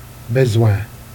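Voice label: "bésouain", Jèrriais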